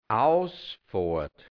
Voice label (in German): "Ausfoahrt", hianzisch Mundart, Burgenland; Durchfahrt in der Scheune